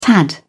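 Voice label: "tad", British English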